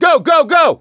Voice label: emphatic go, voice; gambler

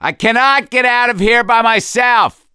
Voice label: call for rescue, survivor voice; gambler

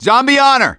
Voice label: hunter pounced, voice; Left 4 Dead 2; survivor; gambler

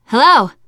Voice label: scenario join, teen girl

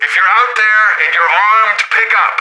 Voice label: radio initial broadcast

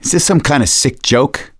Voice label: reaction negative, voice; gambler

